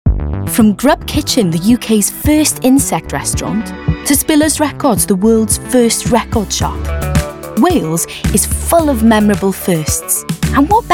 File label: Commercial Reel